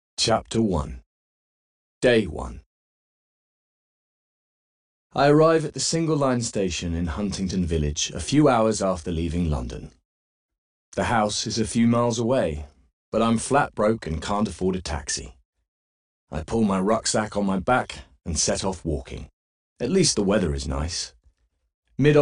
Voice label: audiobook; Gaslit